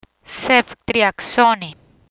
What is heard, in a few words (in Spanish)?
"Ceftriaxone", Spanish; drugs; learn spanish